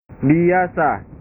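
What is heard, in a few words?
"biasa", Indonesian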